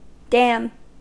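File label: "dam", American English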